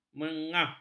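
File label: "mengah", Malay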